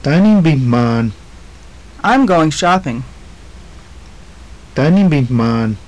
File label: conversation, maya; Táan in bin jmaan.; I'm going shopping.; JUNP'IIT MAYA